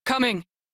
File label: Crew Follow, Female; Dialog; en; Warframe; Railjack Crew; New Loka